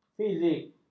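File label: "fizik", Malay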